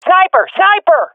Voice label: sees sniper, swat fem; vo; agents; Source Model Packs; Counter Strike Model Pack; CS2

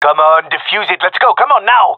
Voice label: cover defuse, gendermerie male

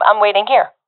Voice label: waiting here, professional fem; vo; Counter Strike Model Pack; CS:GO

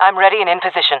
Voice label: in position, jungle fem; vo

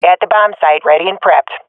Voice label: guarding bombsite, swat fem; vo; agents; Source Model Packs; Counter Strike Model Pack; CS2

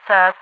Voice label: serve, radio voice; Half Life 2

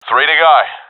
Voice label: enemies left three, professional epic; vo; Source Model Packs; Counter Strike Model Pack; CS2

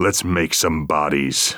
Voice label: radio lock n load; vo; Counter Strike Model Pack; CS:GO; phoenix coop

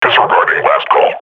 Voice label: combat idle, grunt

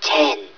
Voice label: "ten", hev suit; Half Life Alyx

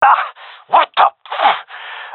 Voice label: taking fire friendly, male; vo

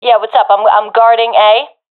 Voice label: guarding bombsite a, professional fem; vo; Counter Strike Model Pack; CS:GO

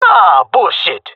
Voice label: round lost, jungle male; player vo; Counter Strike Model Pack; CS:GO

